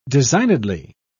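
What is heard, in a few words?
"designedly", British English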